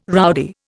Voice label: "rowdy", Indian